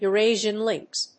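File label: "Eurasian lynx", English; ヨーロッパ大山猫, シベリア大山猫; Weblio英和辞書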